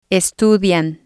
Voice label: "estudian", spanish